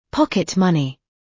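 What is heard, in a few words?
"pocket money", British; Business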